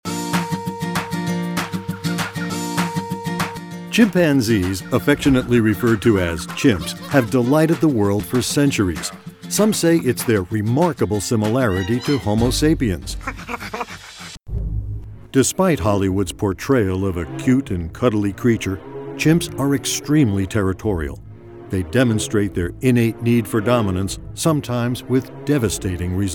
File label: Documentary, English American